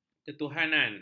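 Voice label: "ketuhanan", Malay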